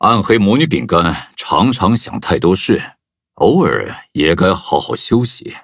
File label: loading, zh-hant; cookie run